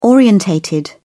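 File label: "orientated", British English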